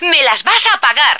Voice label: dirtbag, female

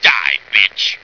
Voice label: "die bitch", male; Marisa the Magician

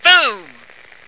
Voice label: "boom", female

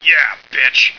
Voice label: "yeah bitch", male; Marisa the Magician

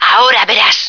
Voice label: "you suck", female; Marisa the Magician

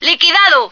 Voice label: toasted, female

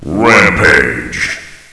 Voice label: rampage, announcer; Marisa the Magician

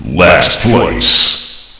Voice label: lastplace, announcer; Marisa the Magician

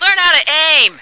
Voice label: aim, female

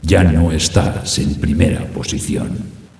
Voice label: lost lead, announcer

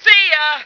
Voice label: "see ya", female

